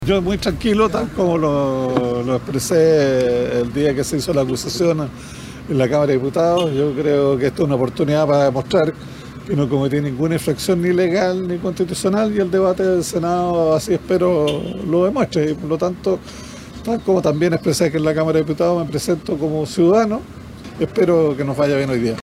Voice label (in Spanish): cuña